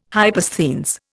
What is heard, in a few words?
"hypersthenes", Indian